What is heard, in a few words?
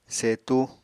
"setu", Apatani